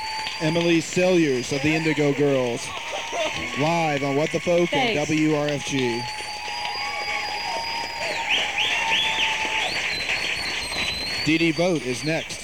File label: radio announcer; songwriter showcase - eddie's attic - decatur